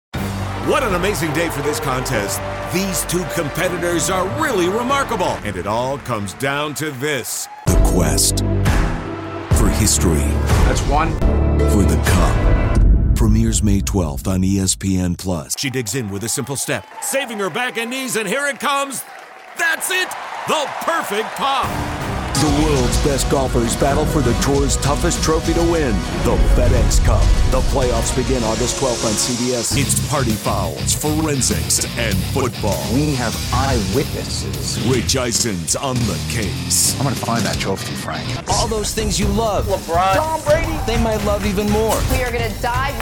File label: promo men sports